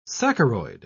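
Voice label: "saccharoid", English, British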